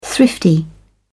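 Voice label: "thrifty", British English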